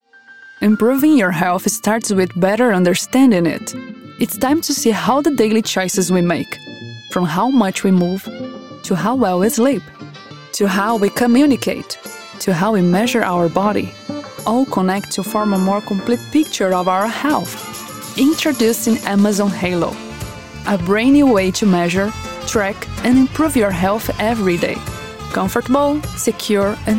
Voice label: english commercial, Portuguese (Brazilian) voice actor